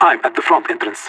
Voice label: i'm front entrance, radio; LEET